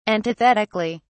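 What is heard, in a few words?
"antithetically", British English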